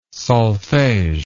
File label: "solfege", english